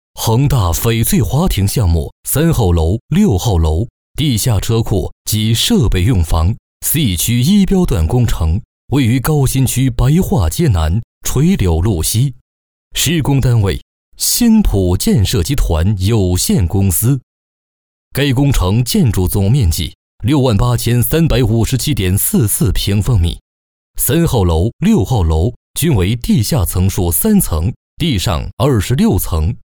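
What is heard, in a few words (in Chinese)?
国语 男声 专题片-工程概况 大气浑厚磁性 沉稳 娓娓道来; 恒大翡翠华庭项目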